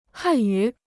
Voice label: "汉语", Chinese